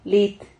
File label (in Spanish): "lit", Silabas